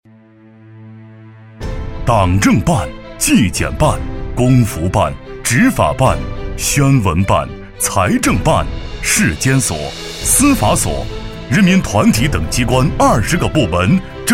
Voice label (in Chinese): 男 活动广告 歌手总决赛 大气激情; 厚重力度; 沉稳大气